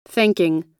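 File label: "thanking", US English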